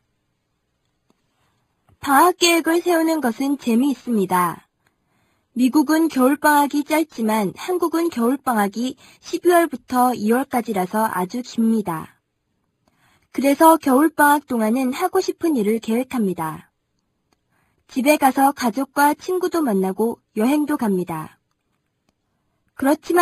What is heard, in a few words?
Korean Narration; DLI; Lesson 13 - Narration